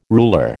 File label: "ruler", American